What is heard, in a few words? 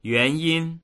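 "yuan2yin1", Mandarin Chinese; 原因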